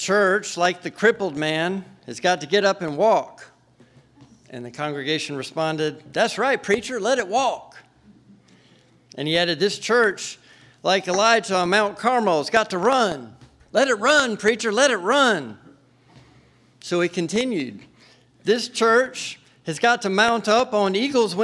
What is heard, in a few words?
Sermon; Refectory